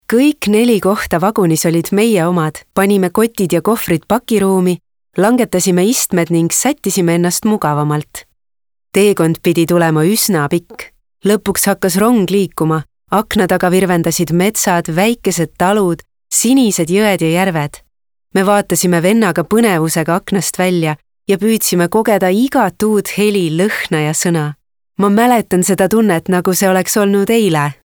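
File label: Estonian Female Casual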